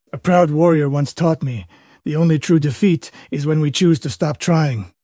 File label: upset; fertig classic games; kage